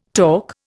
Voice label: "torc", Indian

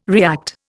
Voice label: "react", Indian

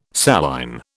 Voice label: "saline", British

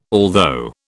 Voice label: "although", British

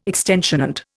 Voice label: "extant", Indian

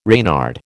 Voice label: "Reynard", American

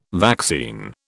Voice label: "vaccine", British

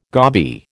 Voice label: "goby", American

